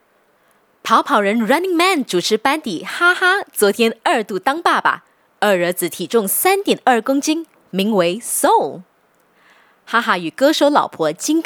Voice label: Cantonese Chinese Entertainment, Cantonese Female

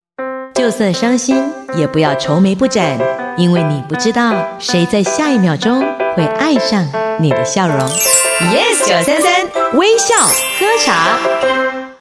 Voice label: Mandarin Smile Tag, Mandarin China Female

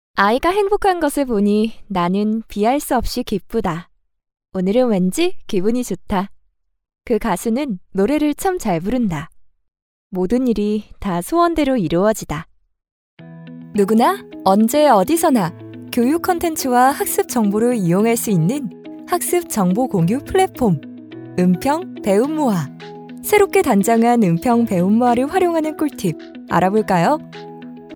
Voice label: Korean voice sample

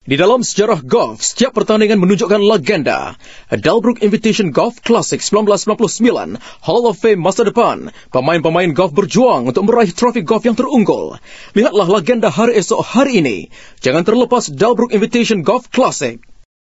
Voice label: Malay

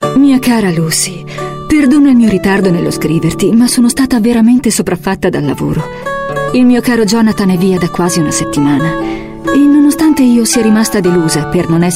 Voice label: Italian

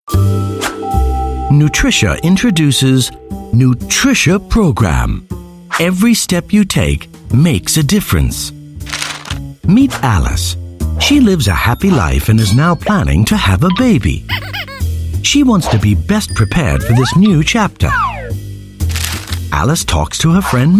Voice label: US English Explainer; Nutricia

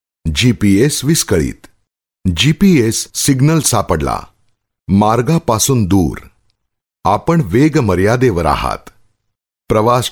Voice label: Gujarati Male; India

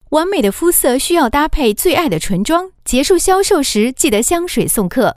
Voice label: Mandarin China Sales Training; Givenchy

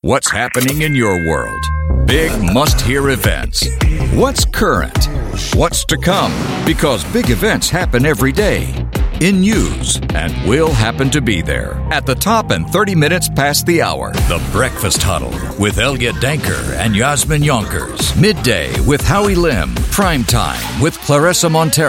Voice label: US English Station Launch, Male; Money FM

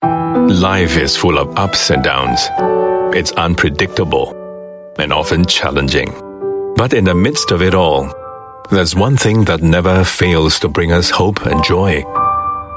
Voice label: Asian English Soft Read

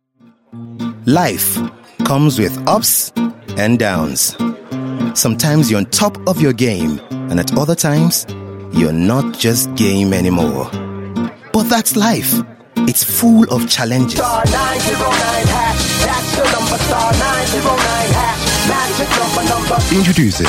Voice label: English African Commercial, African Male

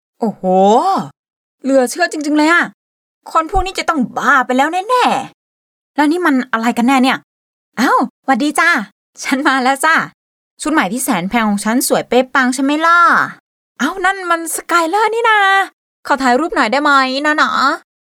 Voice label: Thai Female Character

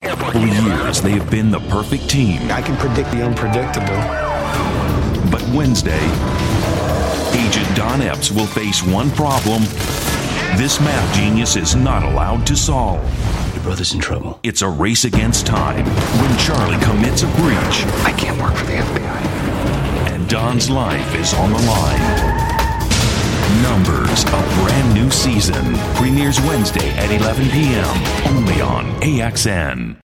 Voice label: US English; AXN Numb3rs

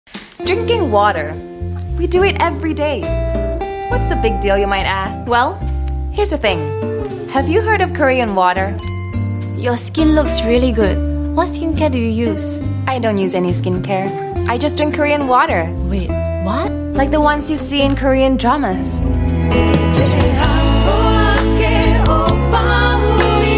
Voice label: Asian English; Sterra

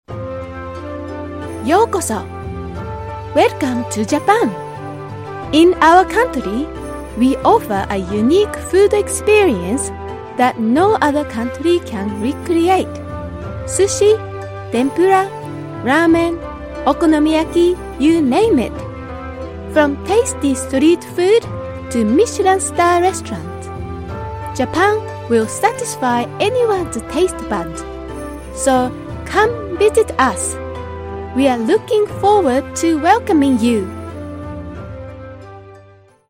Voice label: Japanese English, Female

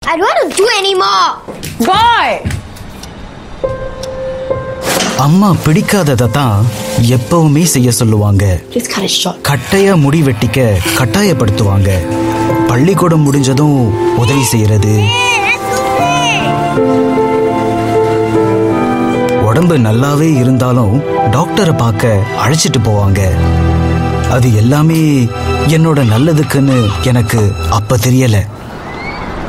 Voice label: Tamil SG; A Mothers Love

